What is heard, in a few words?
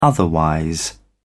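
"otherwise", British English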